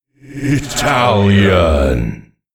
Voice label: italian